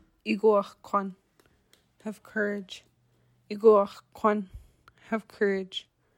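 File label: have courage, Tlingit; December; more phrases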